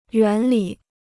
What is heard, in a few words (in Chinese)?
"原理", Chinese